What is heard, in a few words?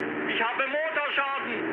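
German damaged engine mood high, speech; War Thunder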